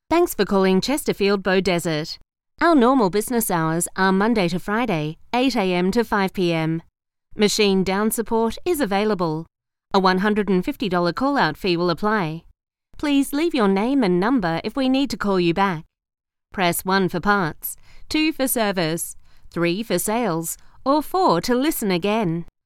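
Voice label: after hours message; Chesterfield Beaudesert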